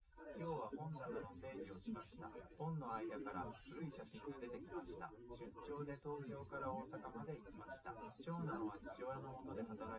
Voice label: male, live rec; SiSEC08; SiSEC underdetermined